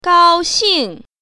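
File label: "gao1xing4", Chinese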